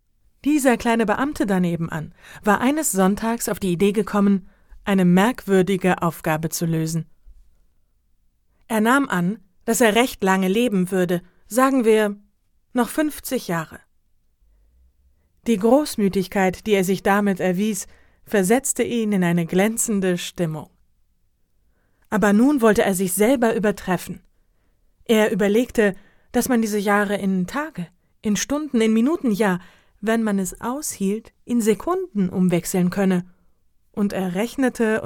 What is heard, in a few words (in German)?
Hoerbuch Erzaehlerin lebendig Sprecherin